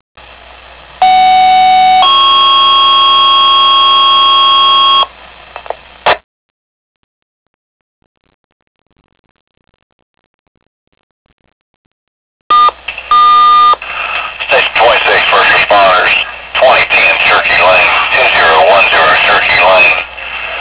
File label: Medical Call